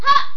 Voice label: Warrior Voice